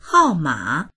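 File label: "hao4ma3", Mandarin Chinese; hàomǎ; 号码; 番号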